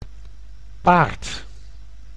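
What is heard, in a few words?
"paard", Dutch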